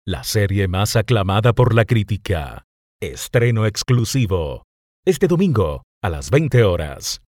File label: TV ID PROMO